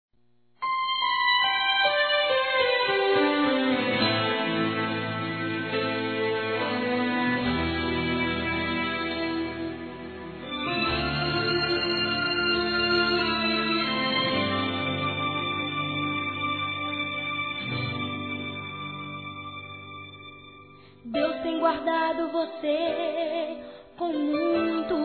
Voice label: Aniversário Irmã Evangelico Masculino, Telemensagens

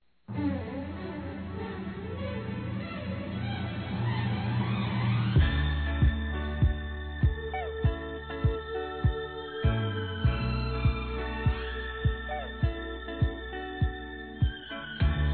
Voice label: Irmão (a) Adolescente Feminino, Telemensagens Aniversários; Aniversário Infantil

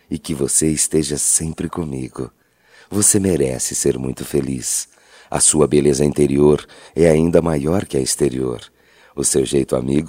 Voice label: Aniversário Romântico Masculino, Voz; Telemensagens; Só Voz; Telemensagens 2017

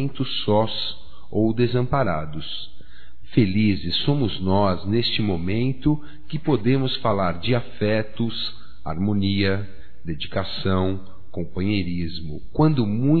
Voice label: AMIZADE PARA AMIGA (M); Amizades; Telemensagens 2017

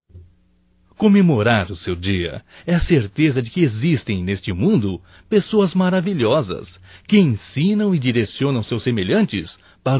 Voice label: Dia Professor Masculino, Telemensagens, Só Voz; DATAS COMEMORATIVAS

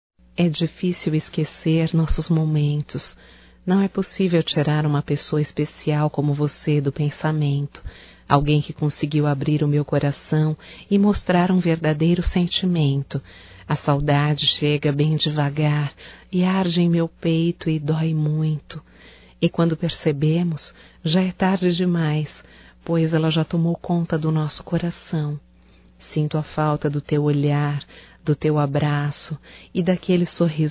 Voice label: SAUDADES ROMANTICA, Telemensagens, Só Voz, fem

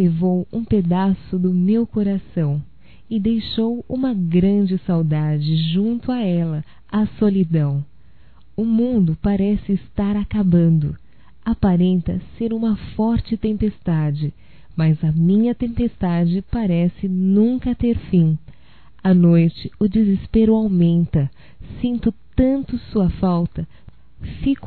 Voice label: SAUDADES(F), Românticas fem

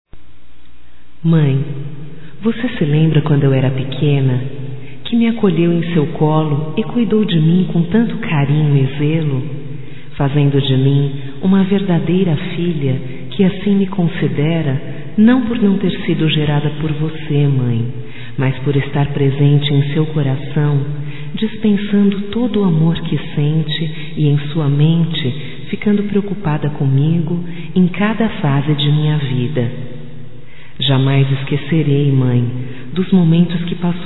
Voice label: voz Aniversário mãe adotiva, fem

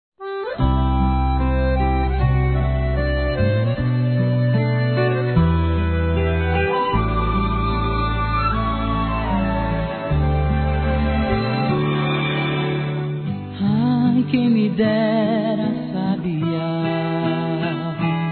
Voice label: ANIV. ENTEADO - M

